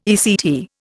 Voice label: "ECT", Indian